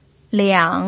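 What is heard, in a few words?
"liang", Mandarin Chinese pinyin, tone 3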